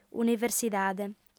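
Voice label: "universidade", Sardinian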